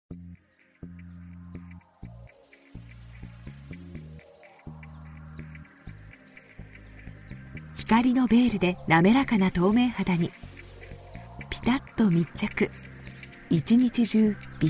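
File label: Japanese Commercial; GoLocalise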